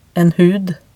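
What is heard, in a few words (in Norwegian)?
"en hud", Norwegian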